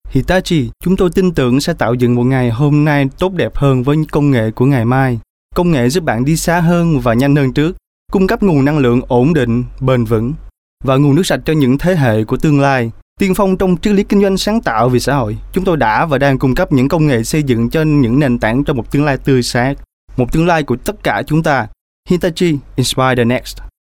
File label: Vietnamese Southern voice-over, Vietnamese Male